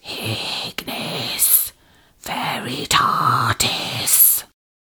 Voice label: ignis veritatis whisper, incantations older female; fire spells; psfx